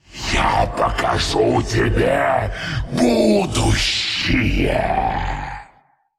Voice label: Male Qiraji Attack

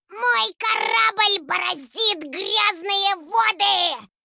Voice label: Female Goblin Play

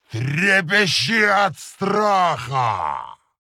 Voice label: Male Orc Attack

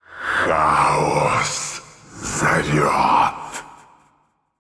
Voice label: Male Elemental Play; Hearthstone; датамайн обновления 5.0

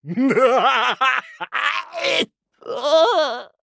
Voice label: Male Blood Elf Death

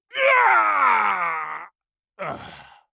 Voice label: Male Troll Death

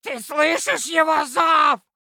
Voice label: Male Gnome Play; Hearthstone: датамайн обновления 5.0

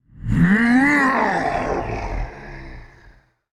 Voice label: Male Monster Death

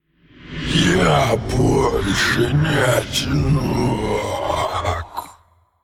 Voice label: Androgynous Faceless; Hearthstone; датамайн обновления 5.0

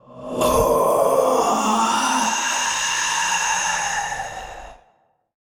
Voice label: Male Old God Death; Hearthstone; датамайн обновления 5.0

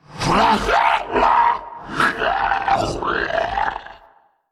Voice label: Male Qiraji Death

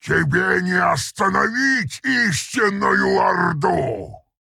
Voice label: Male Orc Play; Hearthstone; датамайн обновления 5.0

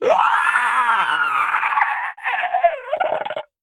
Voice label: Male Arakkoa Death

